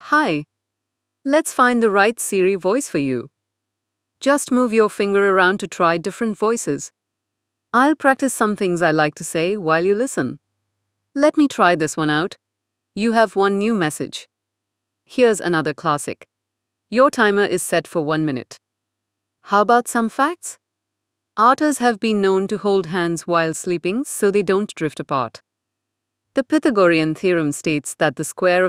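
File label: Indian English; iOS 26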